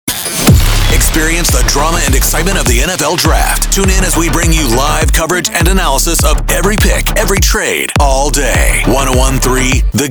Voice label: Sports Radio Imaging